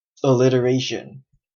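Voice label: "alliteration", US English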